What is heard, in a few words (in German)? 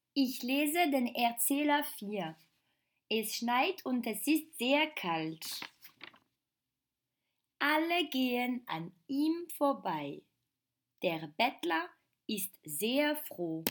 Erzähler